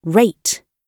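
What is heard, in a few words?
"rate", British English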